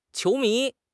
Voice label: "qiu2 mi2", 汉语口语; 汉语口语新时代-初级下

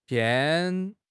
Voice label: "pian4", 汉语口语; 汉语口语新时代-初级下

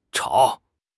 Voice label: "chao3", 汉语口语; 汉语口语新时代-初级下

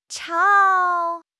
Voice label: "chao3", 汉语口语; 汉语口语新时代-初级下

